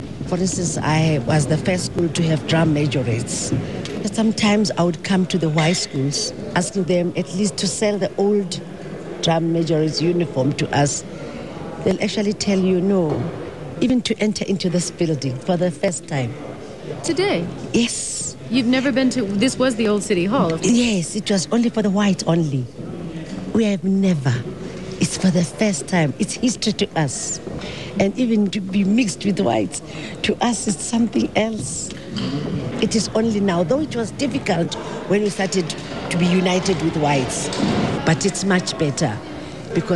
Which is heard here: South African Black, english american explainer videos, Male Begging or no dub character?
South African Black